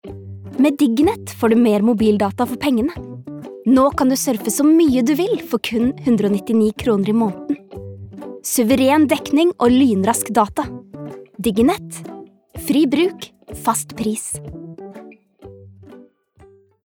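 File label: Norwegian reklame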